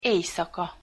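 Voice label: "ejszaka", Hungarian pronunciation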